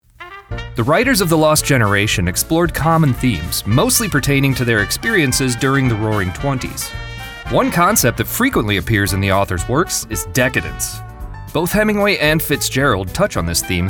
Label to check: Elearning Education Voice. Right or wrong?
Right